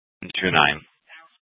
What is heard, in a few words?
Dispatch; Scottsdale